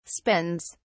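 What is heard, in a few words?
"spends", English, British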